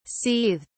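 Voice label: "seethed", British English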